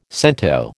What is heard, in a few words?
"cento", American